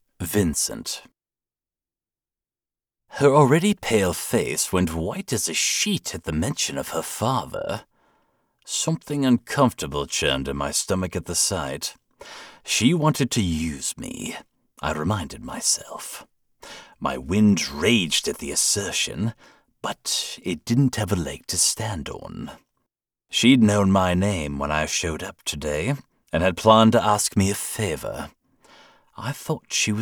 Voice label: Audiobook Fiction DUET (British Accent) Cozy Romantasy